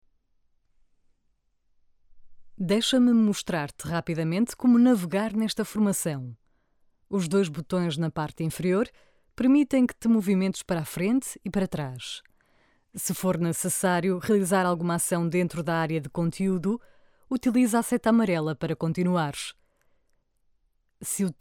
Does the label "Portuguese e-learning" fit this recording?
Yes